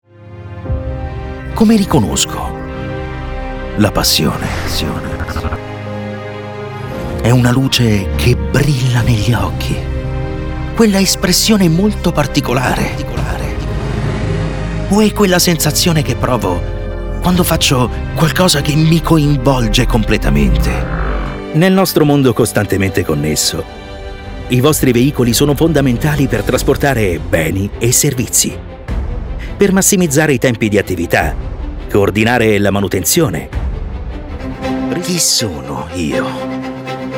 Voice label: Emotional Video